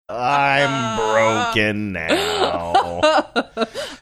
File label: saying im broken now funny drawn out